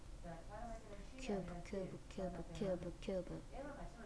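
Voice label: "cube", spoken word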